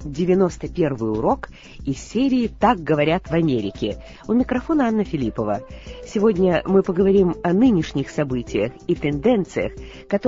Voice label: russian english